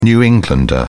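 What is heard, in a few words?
"new englander", British English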